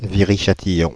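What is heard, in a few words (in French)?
"Paris Viry-Châtillon", French, Paris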